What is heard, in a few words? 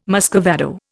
"muscovado", Indian